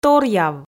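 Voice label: "торъяв", Komi, female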